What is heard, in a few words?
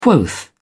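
"quoth", English, uk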